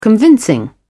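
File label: "convincing", British English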